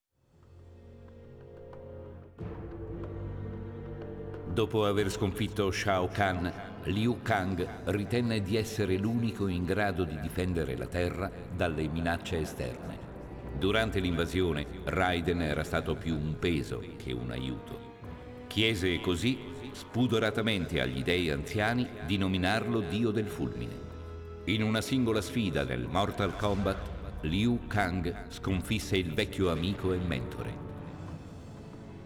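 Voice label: music voice over endings Italian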